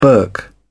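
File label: "burk", British English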